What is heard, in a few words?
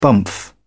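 "bumph", British English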